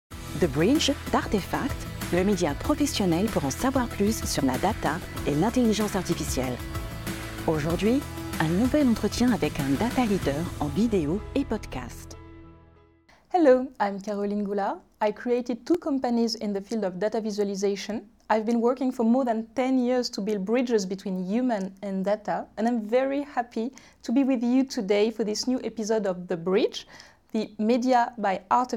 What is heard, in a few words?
Podcast